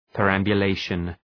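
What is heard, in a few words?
"perambulation", English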